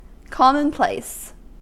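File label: "commonplace", US English; pronunciations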